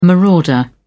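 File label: "marauder", English, British English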